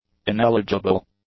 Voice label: "ineligible", English; adjectives

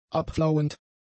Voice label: "abflauend", German; adjectives

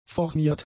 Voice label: "formiert", German; adjectives

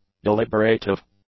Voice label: "deliberative", english; adjectives

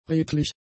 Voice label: "redlich", German; adjectives

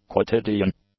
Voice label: "quotidian", English; adjectives

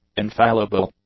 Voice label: "infallible", English; adjectives

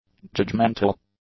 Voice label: "judgmental", english; adjectives

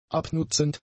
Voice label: "abnutzend", German; adjectives

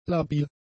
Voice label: "labil", German; adjectives

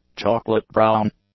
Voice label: "chocolate-brown", English; adjectives

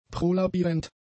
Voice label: "prolabierend", German; adjectives

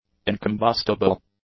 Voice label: "incombustible", English; adjectives

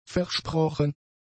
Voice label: "versprochen", German; adjectives

